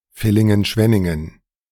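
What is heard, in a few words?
"Villingen-Schwenningen", German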